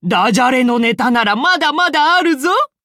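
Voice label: level up, Japanese; cookie run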